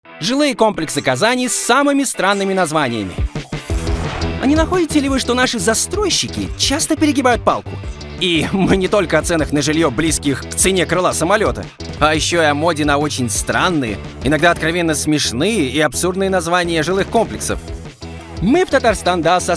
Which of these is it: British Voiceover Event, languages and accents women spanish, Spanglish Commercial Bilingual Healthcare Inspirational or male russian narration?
male russian narration